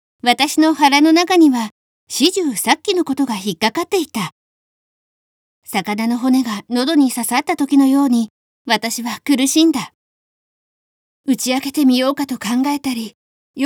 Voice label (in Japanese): girl; Japanese